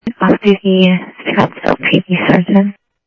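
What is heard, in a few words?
Sheriff East County, scanner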